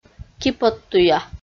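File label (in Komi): "киподтуя", Komi, female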